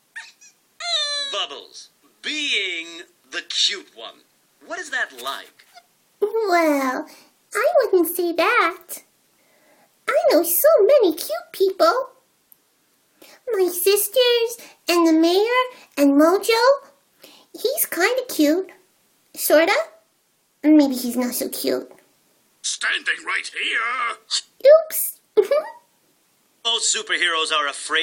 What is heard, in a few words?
impression; f4a; Interview with Bubbles from Powerpuff Girls; is this fandub